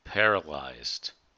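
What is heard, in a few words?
"paralyzed", us English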